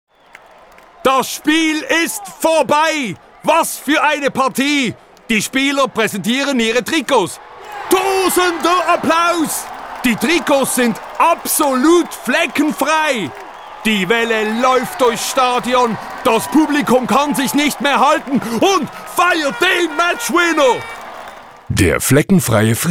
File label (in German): werbung; calor